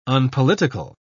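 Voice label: "unpolitical", British English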